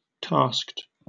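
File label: "tasked", British English; pronunciation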